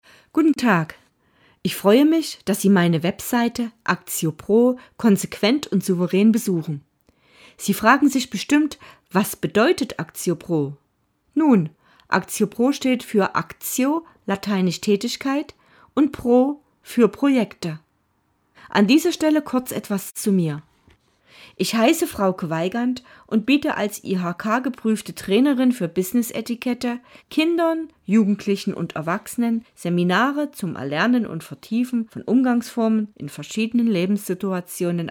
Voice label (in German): Ansage